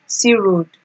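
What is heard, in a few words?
"see road", Nigerian Pidgin, female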